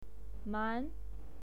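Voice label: "man" second tone, pinyin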